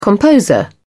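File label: "composer", English, British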